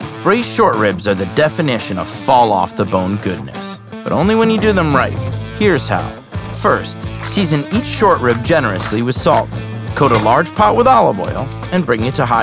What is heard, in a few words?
Narration